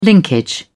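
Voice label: "linkage", British English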